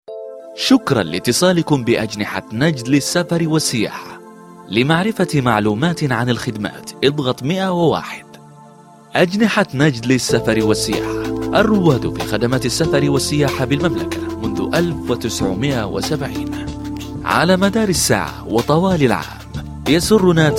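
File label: arabic, male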